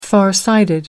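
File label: "farsighted", British English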